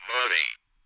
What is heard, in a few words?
Speak Spell Glitch "Money"; Audiowanderer